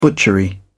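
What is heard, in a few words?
"butchery", English, British English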